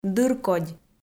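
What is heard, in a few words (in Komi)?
"дыркодь", Komi, female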